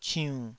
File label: "ciunn3", Taiwanese